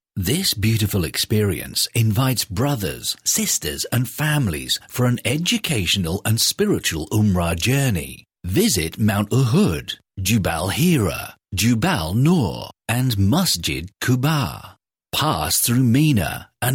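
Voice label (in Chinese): 外籍英式男 抒情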